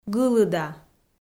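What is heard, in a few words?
"гылыда", Komi, female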